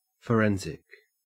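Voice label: "forensic" Australian English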